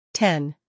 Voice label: "ten", English